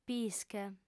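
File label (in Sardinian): "pische", female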